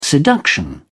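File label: "seduction", British English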